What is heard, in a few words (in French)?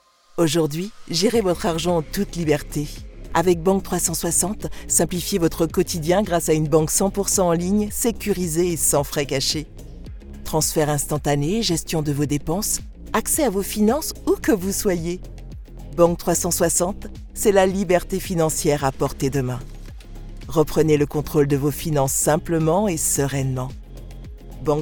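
publicité corporate ton rassurant sérieux, voix off féminine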